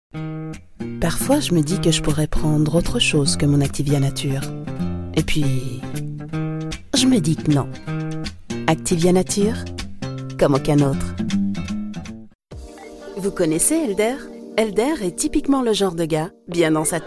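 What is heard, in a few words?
female French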